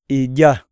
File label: eat, iyasa